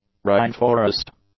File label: "rainforest", English; nouns